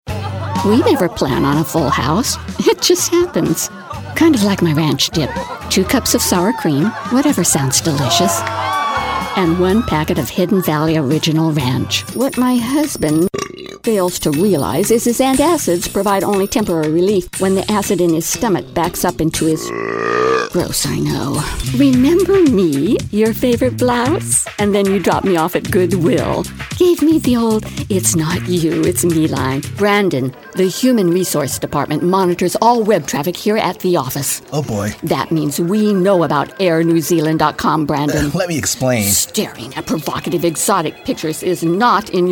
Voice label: Commercial